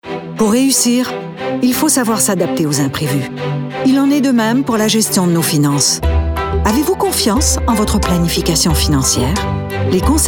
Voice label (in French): demo publicite